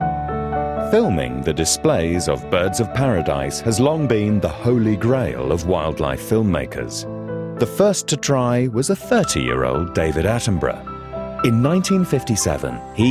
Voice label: Narrative Voice